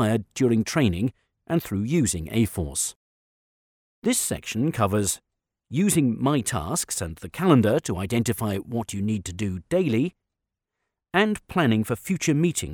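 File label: E Learn, voiceover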